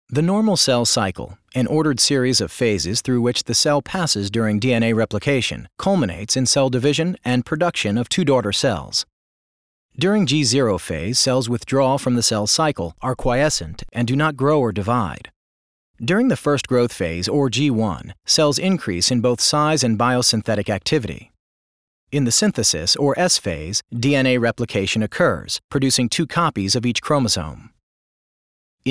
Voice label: Medical Narration